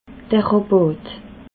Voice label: "rabatt", alsace

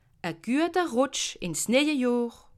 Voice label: "rutsch", alsace

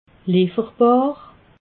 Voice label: "leferbar", alsace

